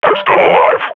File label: combat idle, grunt; Half Life Model Pack